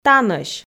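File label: "танӧсь", Komi, female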